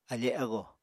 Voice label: "afe ago", apatani

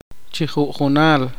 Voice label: poqomchi; uno por uno

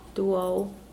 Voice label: "nine", Mokilese

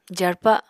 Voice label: "jarpa", Apatani

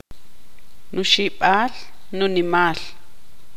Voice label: tzutujil; hermano mayor

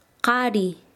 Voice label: "hombre", Quechua Chanka

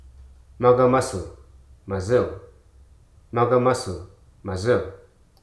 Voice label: "indifferance", Hruso

